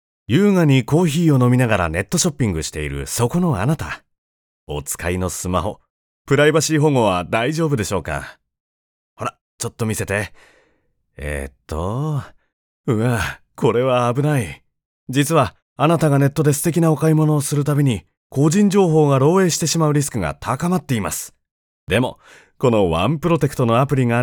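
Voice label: Japanese, male, commercial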